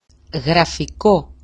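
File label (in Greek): "grafiko", Greek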